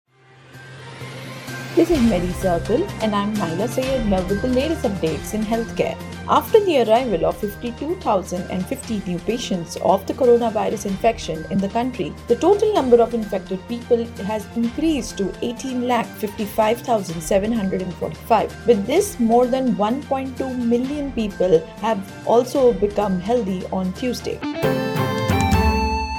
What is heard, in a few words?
english news